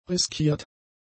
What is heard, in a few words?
"riskiert", German; adjectives